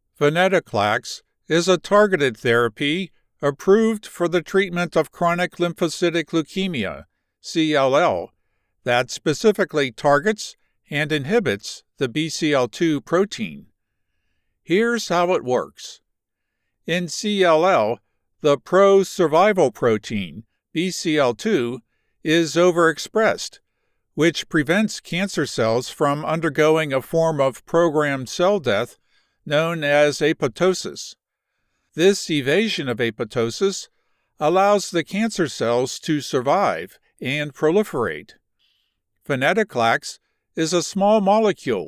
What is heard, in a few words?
Med drug mechanism of action, science medical narration; venetoclax